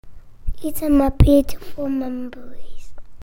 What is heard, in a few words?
voiceover sample